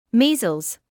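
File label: "measles", British English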